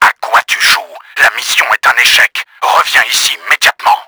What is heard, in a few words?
ANGRY, french; KRAMER; Battle Engine Aquila